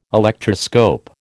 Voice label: "Electroscope", American